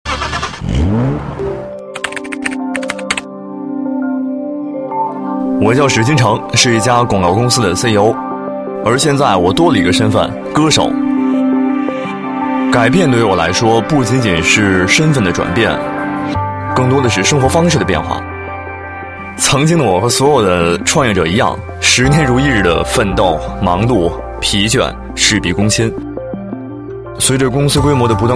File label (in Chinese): 男 广播 普通人, 国语男声配音; 奔驰广播; 内心独白